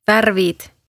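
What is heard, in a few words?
"värvid", Estonian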